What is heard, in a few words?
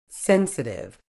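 "sensitive", British English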